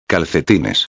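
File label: "calcetines", Spanish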